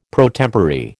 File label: "pro tempore", American